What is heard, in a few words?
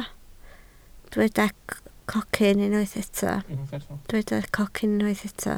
"cocyn", Welsh